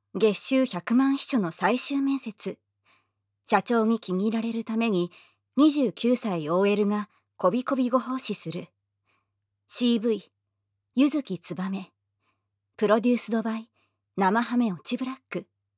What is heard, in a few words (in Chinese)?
标题朗读 无效果音; 生ハメ堕ち部★LACK; 月薪百万的秘书最终面试